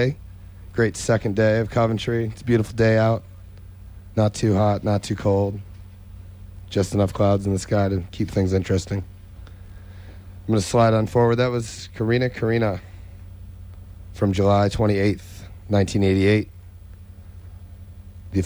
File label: radio banter; Coventry